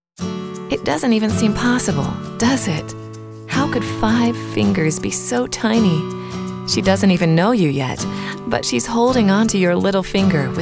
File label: Commercial